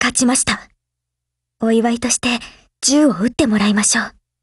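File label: Thunder50 WIN Japanese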